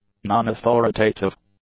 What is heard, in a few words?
"non-authoritative", english; adjectives